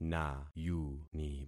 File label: "nayuni", concat syllables; Affixing experiment